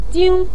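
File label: "jing" neutral tone, Mandarin Chinese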